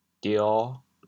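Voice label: "dio5", Teochew, chaoyang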